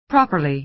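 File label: "properly", American English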